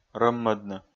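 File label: "рӧммӧдны", Komi, male; kpv audio dict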